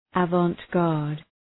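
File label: "avant-garde", English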